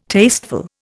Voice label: "tasteful", Indian

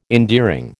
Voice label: "endearing", American

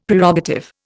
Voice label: "Prerogative", Indian; Dictionary

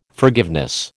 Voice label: "forgiveness", American

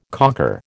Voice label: "cocker", American

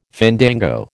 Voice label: "fandango", American

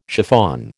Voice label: "chiffon", American

